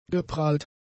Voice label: "geprahlt", German; adjectives

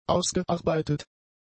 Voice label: "ausgearbeitet", German; adjectives

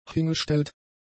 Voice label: "hingestellt", German; adjectives

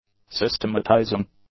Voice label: "systematizing", English; adjectives

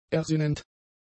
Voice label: "ersinnend", German; adjectives

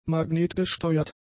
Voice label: "magnetgesteuert", German; adjectives

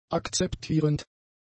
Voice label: "akzeptierend", german; adjectives

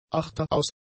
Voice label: "achteraus", german; adjectives

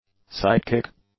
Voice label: "sidekick", English; nouns

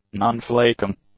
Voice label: "non-flaking", english; adjectives

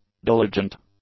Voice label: "diligent", english; adjectives

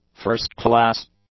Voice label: "first-class", english; adjectives

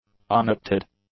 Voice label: "unacted", English; adjectives

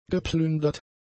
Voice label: "geplündert", German; adjectives

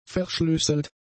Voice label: "verschlüsselt", German; adjectives

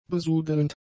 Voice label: "besudelnd", German; adjectives

